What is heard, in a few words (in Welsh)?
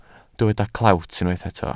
"clewt" Welsh